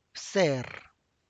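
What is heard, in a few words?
tlacochahuaya, Zapotec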